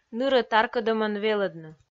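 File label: "нырӧ таркӧдӧмӧн велӧдны", Komi, female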